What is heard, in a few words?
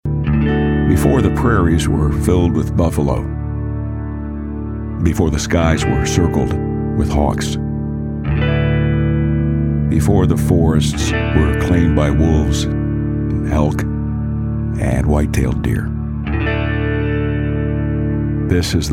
Narration